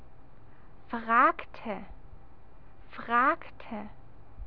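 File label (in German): "fragte", German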